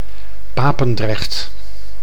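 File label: "Papendrecht", Dutch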